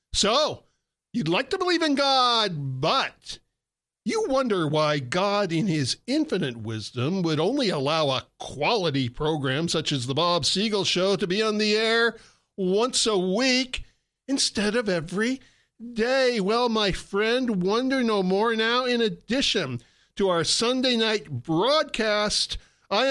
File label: Commercial For Daily Podcast; The Show